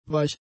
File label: "weich", German; adjectives